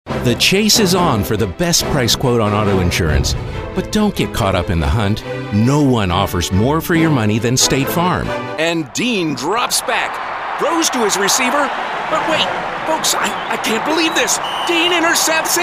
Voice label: Commercial